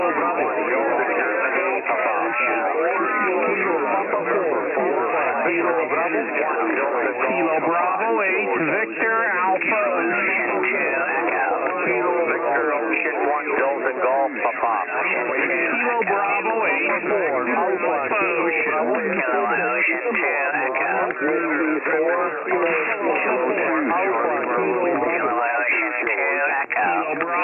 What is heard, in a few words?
pile up on 18mhz ssb